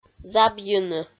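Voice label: "забйыны", Komi, female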